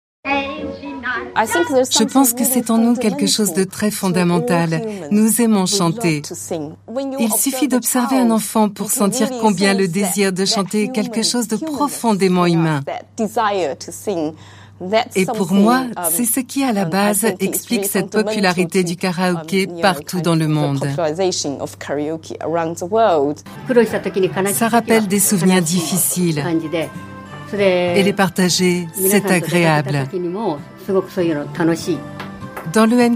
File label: french